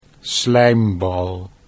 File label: "slijmbal", Dutch